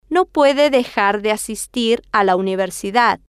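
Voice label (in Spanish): no Puede Dejar De Asistir A La Universidad, Spanish, female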